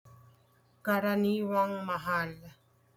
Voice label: Tagalog